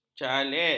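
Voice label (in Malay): "chalet", Malay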